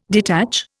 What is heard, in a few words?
"detach", Indian